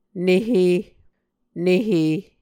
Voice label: "nehe", Muscogee